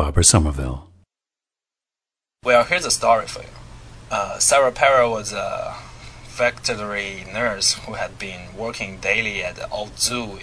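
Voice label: guangdong, International Dialects of English Archive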